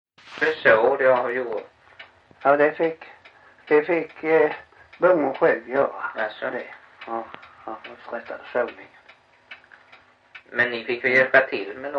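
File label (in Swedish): Backaryd Blekinge, Svenska sagor dialekter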